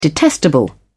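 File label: "detestable", British English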